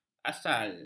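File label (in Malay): "asai", Malay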